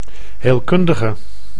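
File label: "heelkundige", Dutch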